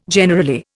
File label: "generally", Indian